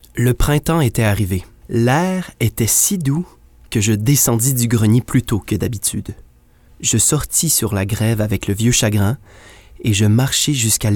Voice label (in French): narration